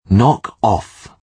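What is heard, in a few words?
"knock off", English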